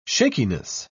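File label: "shakiness", British English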